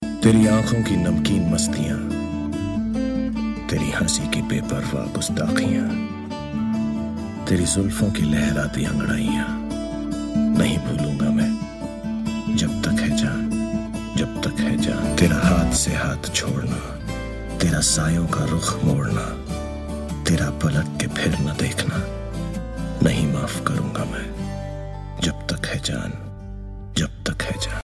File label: Poem; Jab Tak Hai Jan